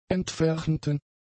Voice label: "entfernten", German; adjectives